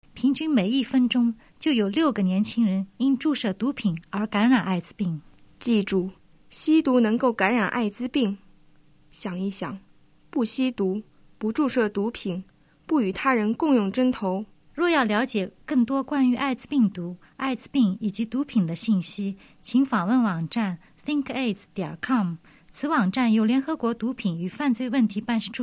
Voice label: spot chinese

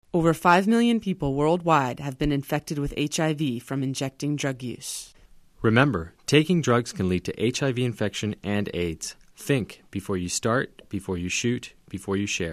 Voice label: spot usa

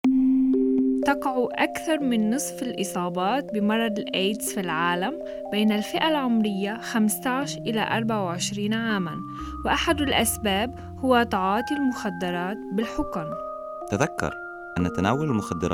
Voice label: spot arabic music

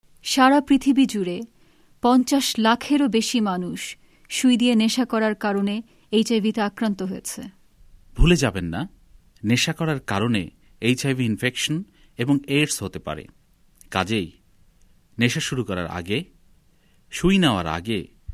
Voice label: spot bengali

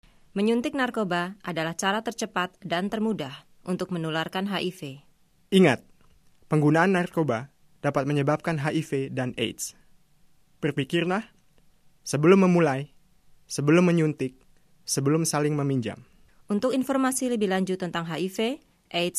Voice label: spot indonesian

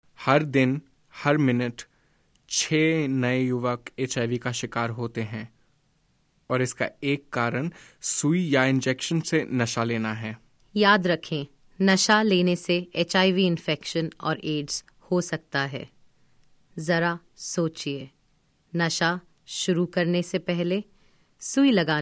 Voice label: spot hindi

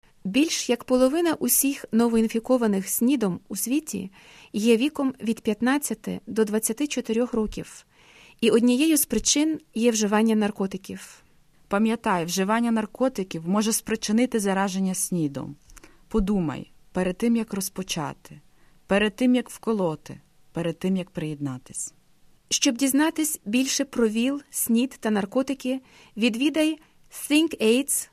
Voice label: spot ukrainian

